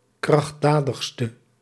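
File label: "krachtdadigste", Dutch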